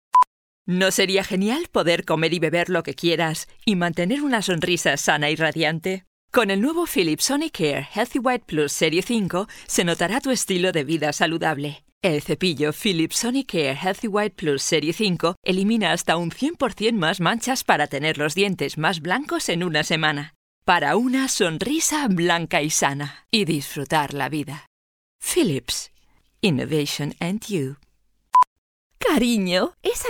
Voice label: commercial mix es

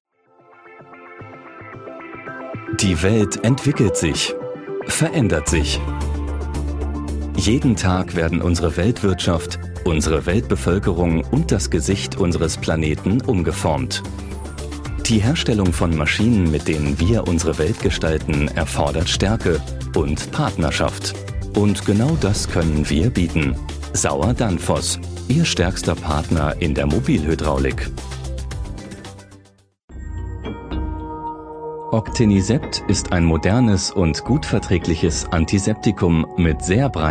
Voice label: Image Elearn

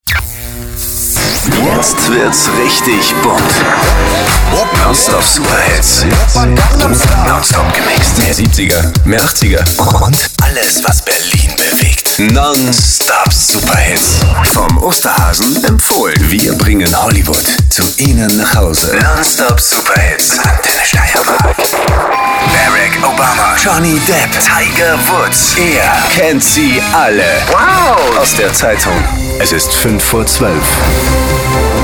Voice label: Station Voice

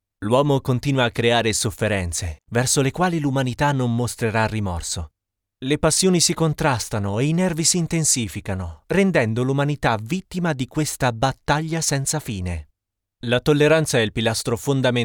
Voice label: Italian Documentary

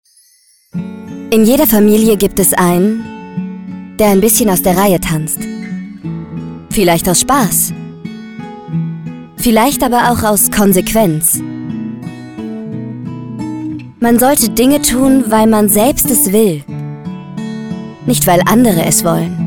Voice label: Emotional

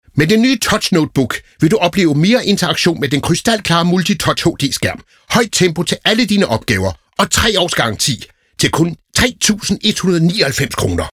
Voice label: Commercial

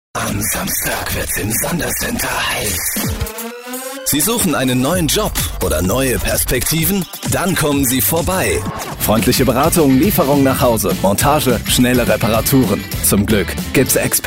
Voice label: spot medley

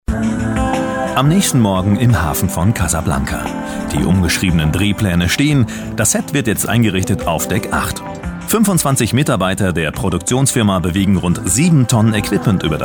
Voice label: Voiceover bunt; ZDF

